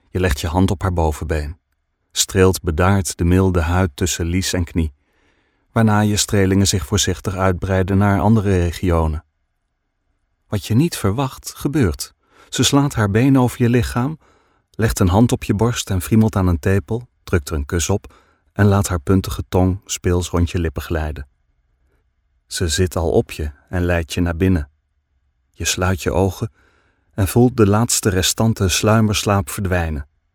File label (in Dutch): DUTCH NL audiobook sensual